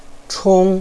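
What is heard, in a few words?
"chong1", Mandarin Chinese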